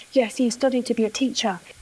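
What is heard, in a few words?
voice acting, es, female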